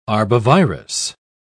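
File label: "arbovirus", British English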